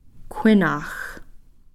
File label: "khwinax", family member